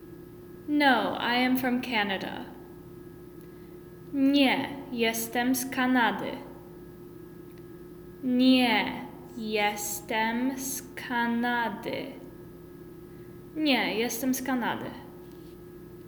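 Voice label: No I am from Canada, Polish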